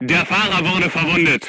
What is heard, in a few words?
voice message driver wounded, crew tanks german